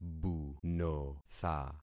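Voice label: "bunotha", concatenated syllables; Affixing experiment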